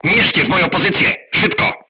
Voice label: voice message art command oneself, crew tanks polish